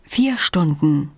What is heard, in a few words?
german 5 hour; samsung YP-U5; Audio User Interface